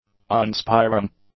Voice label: "awe-inspiring", English; adjectives

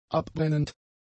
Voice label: "abbrennend", German; adjectives

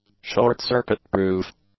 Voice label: "short-circuit-proof", English; adjectives

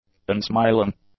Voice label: "unsmiling", english; adjectives

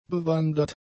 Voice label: "bewandert", German; adjectives

